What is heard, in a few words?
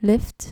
"lift", Dutch